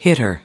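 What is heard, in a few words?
"hitter", American English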